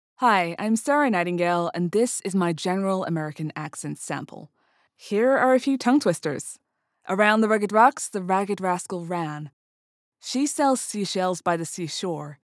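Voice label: Accent Sample General American, female voice; international english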